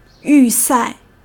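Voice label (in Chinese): "yùsài", Chinese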